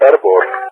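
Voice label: "airborne", English speech; Messages; Allied Flight; DCS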